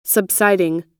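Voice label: "subsiding", US English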